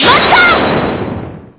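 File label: "hold it", Japanese; athena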